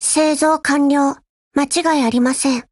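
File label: build over, Japanese; HK416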